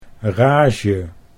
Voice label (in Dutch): "rage", Dutch